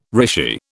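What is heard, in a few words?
"rishi", British English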